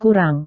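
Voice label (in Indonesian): "kurang", Indonesian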